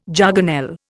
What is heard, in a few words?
"jargonelle", Indian